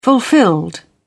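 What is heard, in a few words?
"fulfilled", British English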